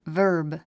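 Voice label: "verb", 英単語 発音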